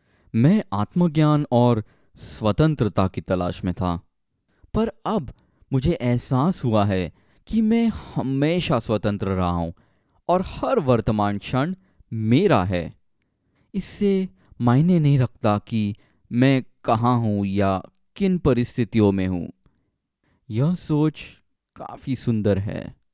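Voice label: Hindi Male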